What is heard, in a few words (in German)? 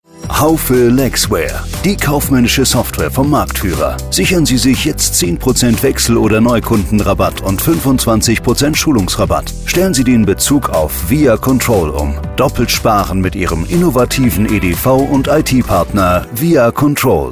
Werbespot; VIACONTROL Haufe Lexware